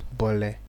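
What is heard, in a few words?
"bole", Nigerian Pidgin, male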